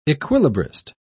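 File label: "equilibrist", British English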